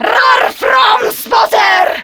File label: Player Spotted, Grineer Female; Warframe; Battle Barks